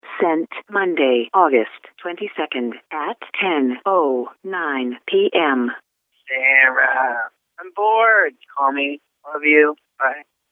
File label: voicemail